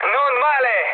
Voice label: trick, mood med, speech it; War Thunder

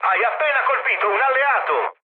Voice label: player killed ally, mood med, speech it

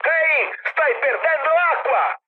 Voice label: damaged water, mood high, speech it; War Thunder

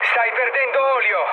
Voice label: damaged oil, mood med, speech it; War Thunder

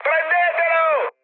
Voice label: attack position, mood high, speech it

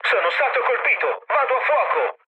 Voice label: on fire, mood low, speech it; War Thunder

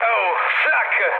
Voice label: damaged aa, mood low, speech it; War Thunder

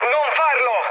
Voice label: bomb failure, mood med, speech it; War Thunder

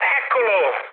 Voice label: enemy detected, mood med, speech it; War Thunder

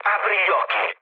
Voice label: player friendly fire, mood low, speech it

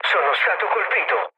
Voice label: aircraft damaged, mood low, speech it; War Thunder

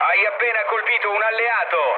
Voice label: player killed ally, mood low, speech it; War Thunder

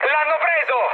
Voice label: aircraft destroyed, mood med, speech it

